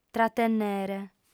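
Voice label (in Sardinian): "tratennere", female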